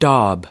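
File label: "daub", US English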